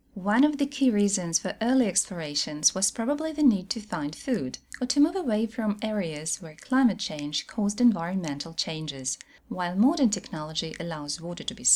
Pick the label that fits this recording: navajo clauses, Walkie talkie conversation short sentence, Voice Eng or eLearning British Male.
Voice Eng